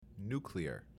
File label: "nuclear" pronounced